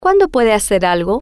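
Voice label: Cuando Puede Hacer Algo, female, spanish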